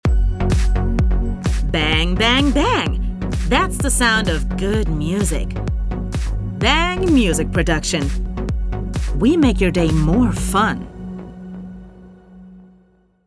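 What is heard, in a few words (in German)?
US English, Lebendig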